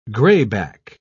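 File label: "grayback", British English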